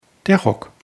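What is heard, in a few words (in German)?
"rock", German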